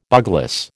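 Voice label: "bugloss", American English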